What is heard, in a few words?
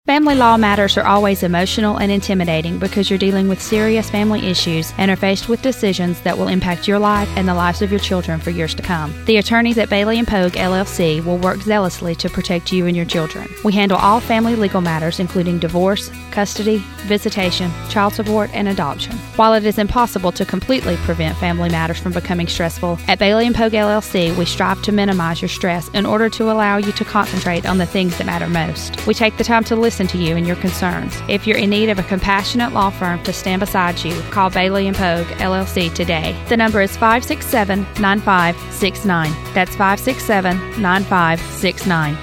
radio spot